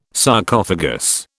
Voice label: "Sarcophagus", British

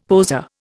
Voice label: "poser", Indian; Dictionary

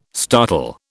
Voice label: "startle", British

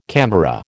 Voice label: "camera", American

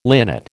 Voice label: "linnet", American

